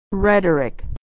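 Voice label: "rhetoric", American English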